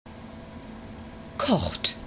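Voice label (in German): "kocht", German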